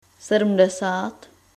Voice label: seventy, czech

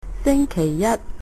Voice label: monday, Cantonese

